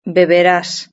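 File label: "beberás", Spanish; verb-conjugator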